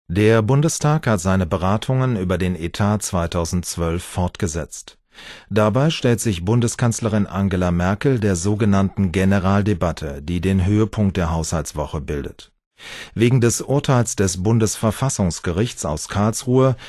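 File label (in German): nachrichten, männer; Essen; Frühstück bei Tiffanys; Las Palmas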